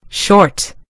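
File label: "short", British English; gcompris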